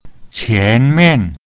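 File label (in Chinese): "qian mian", Mandarin Chinese pinyin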